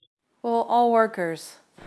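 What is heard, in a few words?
Voice Acting Spanish Female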